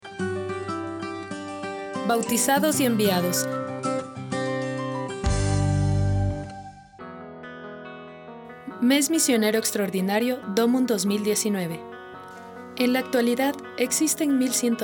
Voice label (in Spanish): Domund espanol; MDomund 2019